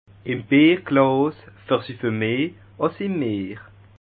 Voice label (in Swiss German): "bierglas", alsace